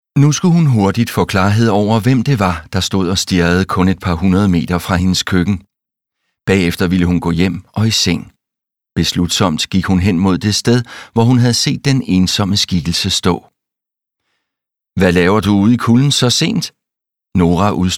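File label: Danish Audiobook Male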